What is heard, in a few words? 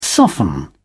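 "soften", British English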